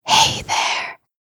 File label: asmr girl hey there; ringtone; asmr ringtones